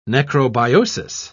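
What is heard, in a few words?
"necrobiosis", British English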